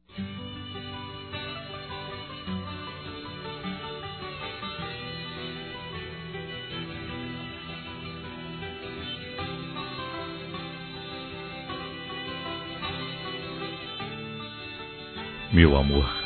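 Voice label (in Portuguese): RECONCILIAÇÃO masculino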